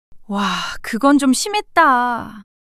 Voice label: surprise, monologue